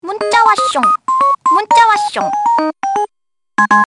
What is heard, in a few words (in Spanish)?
tienes un mensaje coreano